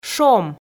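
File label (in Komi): "шом", Komi, female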